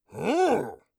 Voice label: surprised, orc voice; Orc Voice Pack